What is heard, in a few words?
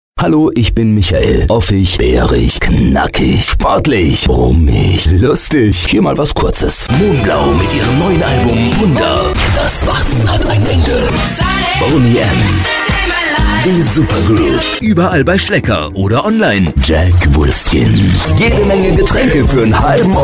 spotmix